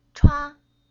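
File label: "Chua", Chinese syllable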